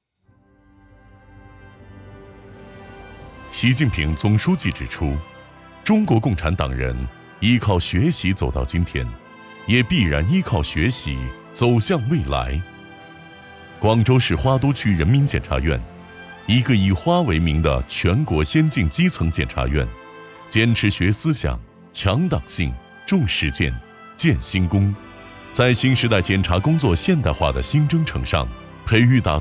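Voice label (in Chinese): 男【专题片】; 五彩花园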